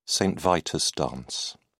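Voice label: "saint vitus dance", British English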